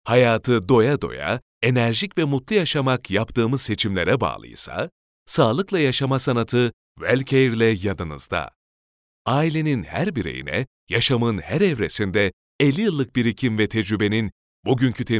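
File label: Turkish, male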